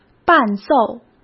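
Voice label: "伴奏", Mandarin Chinese; chinese-flashcards; hsk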